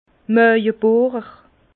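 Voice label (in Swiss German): "maawebohrer", Alsace Bas Rhin; vin; perfore l’estomac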